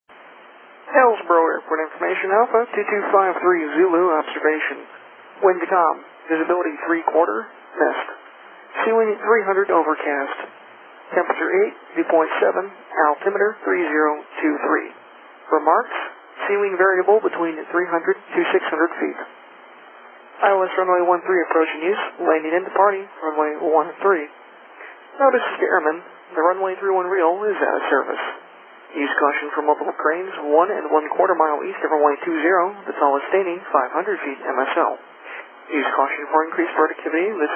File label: ATIS, USA; HIO